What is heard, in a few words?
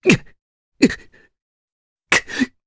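Vox Sad Japanese; Mitra; kings raid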